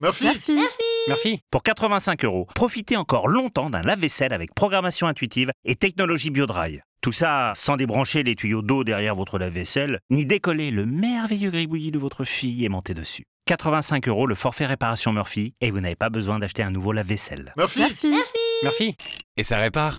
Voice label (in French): radio; MURFY; Lave vaisselle